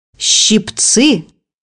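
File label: "tongs", Russian; bukvar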